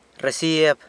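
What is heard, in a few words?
"rresieb", San Lucas Quiaviní Zapotec; bill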